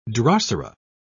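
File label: "drosera", British English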